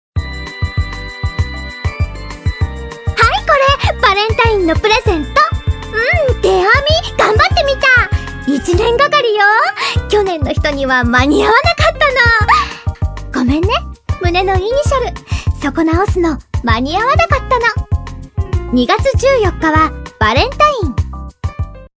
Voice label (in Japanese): ナレーション